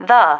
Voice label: "the", female